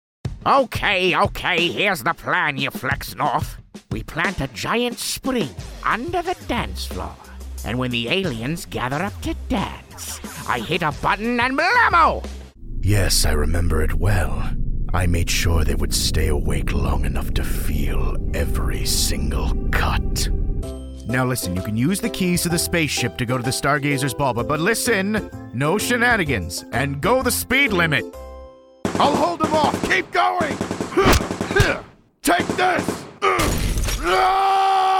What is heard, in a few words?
Animation